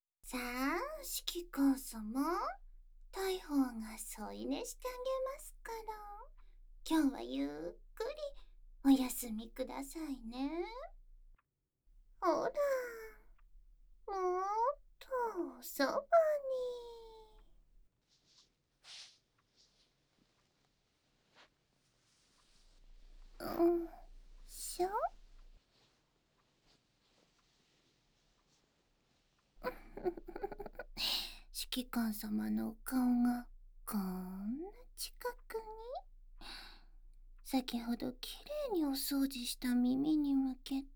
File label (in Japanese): 想い を 囁き ながら の 添い寝